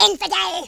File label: traitor, speech, Horror